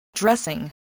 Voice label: "dressing", English

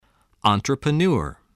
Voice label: "entrepreneur", voice, english

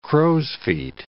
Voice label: "crow's-feet", english

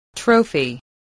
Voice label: "trophy", voice

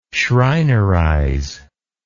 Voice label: "schreinerize", English

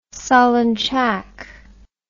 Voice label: "solonchak", english

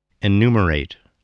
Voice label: "enumerate", voice, english

